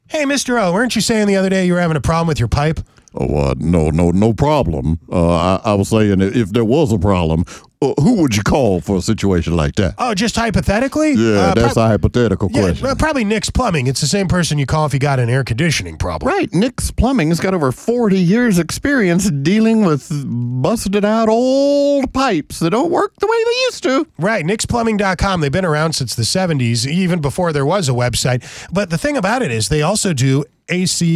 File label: RADIO AD; nicks plumbing radio ads